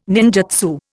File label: "ninjutsu", Indian